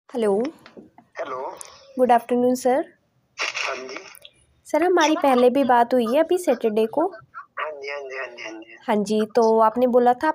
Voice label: call recording